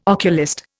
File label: "oculist", Indian